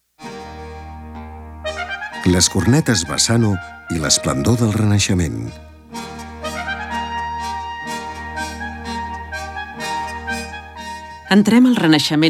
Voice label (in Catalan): audioguia catala; delamusica